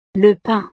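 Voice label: "le pain", French; desayuno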